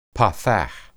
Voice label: "patach", Hebrew